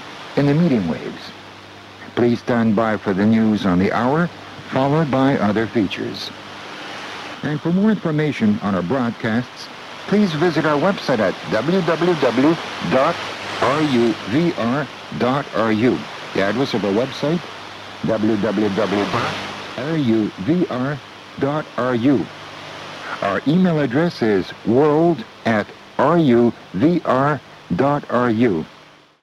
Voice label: Voice of Russia 6 240MHz AM announcement, shortwave radio; icom r20